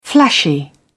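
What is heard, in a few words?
"flashy", British English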